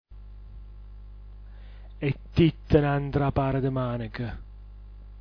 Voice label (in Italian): etittnandrapartmanch, dialetto giulianova